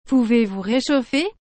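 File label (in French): "Pouvez vous réchauffer", French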